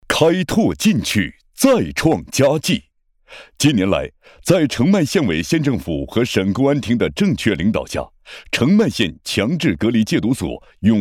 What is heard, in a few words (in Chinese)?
男; 澄迈县隔离所